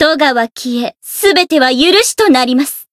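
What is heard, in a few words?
variable chant, Japanese; rosetta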